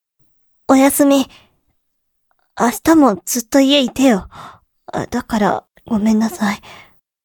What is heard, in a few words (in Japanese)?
土曜 妹, おやすみ